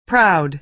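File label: "proud", American English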